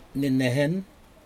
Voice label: fish scales, Aneityum